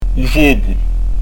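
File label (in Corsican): "u focu", Corsican; u v'ogu; Son "adouci"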